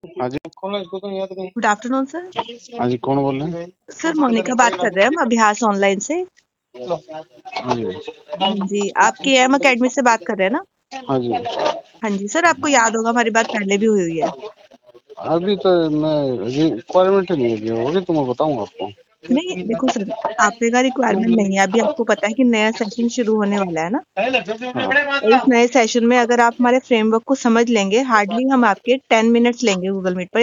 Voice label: call recording